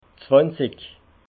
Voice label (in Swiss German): "zwanzig", alsace